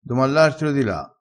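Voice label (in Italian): "domallartro di la", dialettale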